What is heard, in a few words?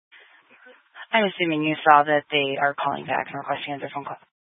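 Incident Tac 3, Scanner audio recording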